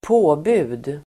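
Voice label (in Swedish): "påbud", Swedish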